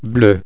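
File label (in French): "bleu", French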